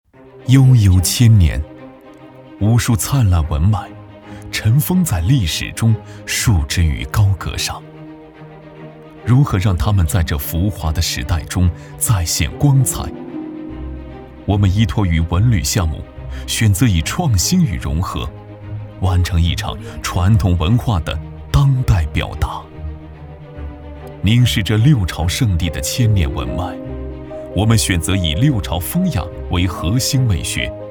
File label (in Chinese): 男 独白 暖心磁性